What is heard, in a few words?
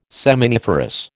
"seminiferous", American